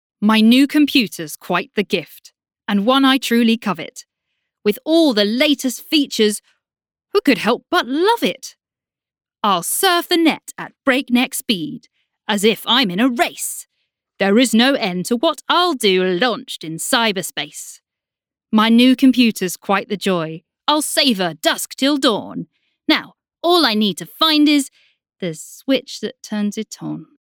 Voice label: voiceover comedy poem